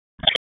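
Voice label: Raleigh Police North District Tactical; p25; wake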